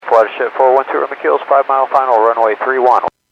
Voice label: Aviation 122.7; KSTE